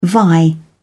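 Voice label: "vie", British English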